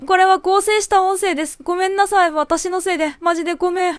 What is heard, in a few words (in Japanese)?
coolcute (sad), これは合成した音声です、、ごめんなさい、わたしのせいで、まじでごめん。; girl-style-bert-vits2-JPExtra-models